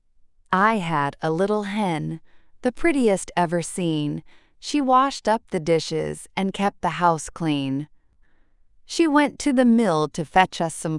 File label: fem us; I Had a Little Hen